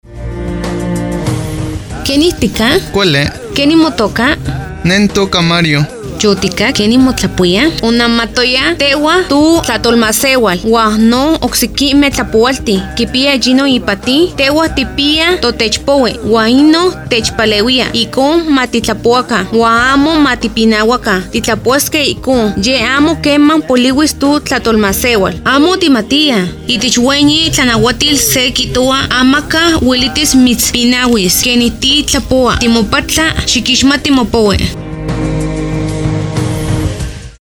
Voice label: Lengua Nahuatl; Proteccion, preservacion de las lenguas indigenas; FI Orizaba

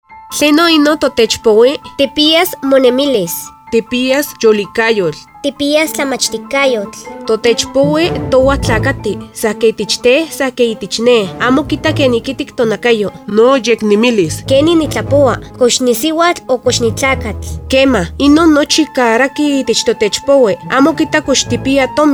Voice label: Lengua Náhuatl del Centro; Derechos Humanos y Defensores de DH; FI Orizaba

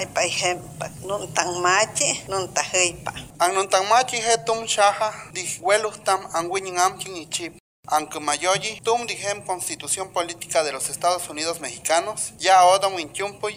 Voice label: Lengua Popoluca; FI San Andres; Proteccion, preservacion de las lenguas indigenas

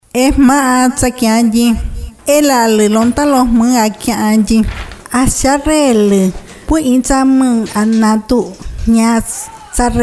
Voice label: Lengua Chinanteco; FI Uxpanapa; Violencia Obstetrica

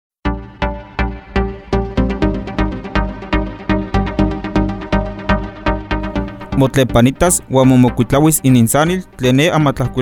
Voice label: Lengua Náhuatl de la Huasteca, Spots; FI Huayacocotla; Periodistas

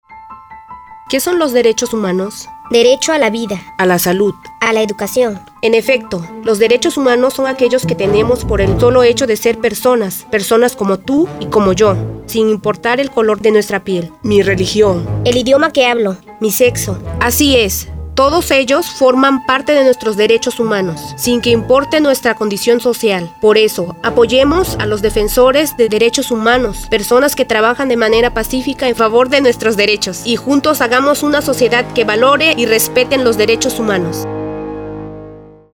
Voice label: Español

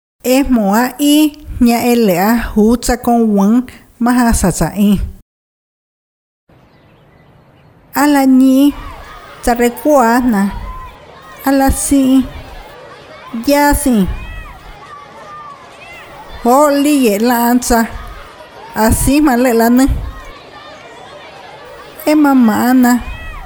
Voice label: Lengua Chinanteco; Proteccion, preservacion de las lenguas indigenas; FI Uxpanapa

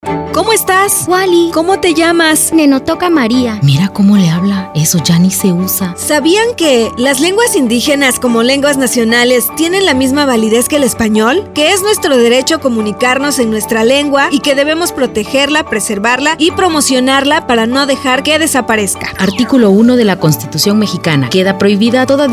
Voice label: Español

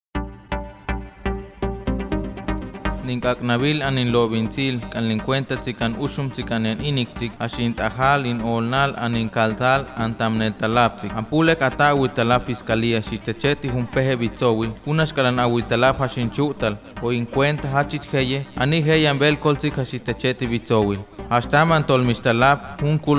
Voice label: Lengua Tének; FI Tantoyuca; Periodistas

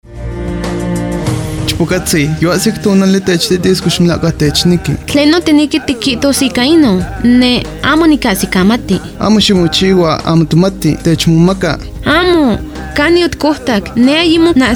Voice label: Lengua Nahuatl; Violencia contra las mujeres; FI Orizaba

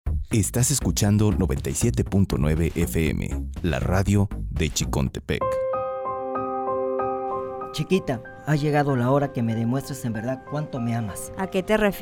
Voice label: Español

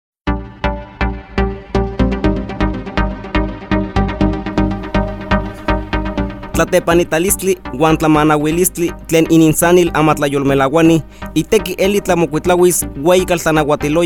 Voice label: Lengua Náhuatl de la Huasteca; FI Chicontepec; Periodistas

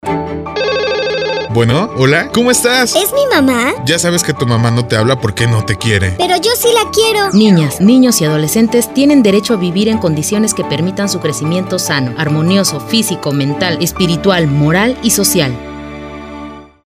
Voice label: Español; Derechos niñas y niños

